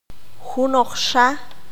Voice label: "otro distinto", Q'anjob'al